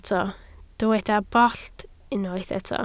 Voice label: "bollt", Welsh